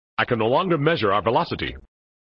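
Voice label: "I can no longer measure our velocity", male voice; Worf; flipper; sttng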